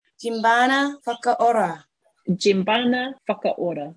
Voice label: Djeembana Whakaora pronuncitation